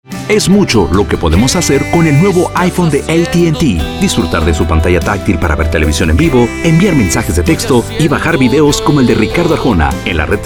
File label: Corporativo